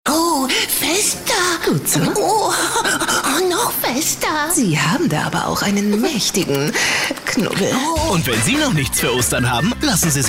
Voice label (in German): Funkspot; Hase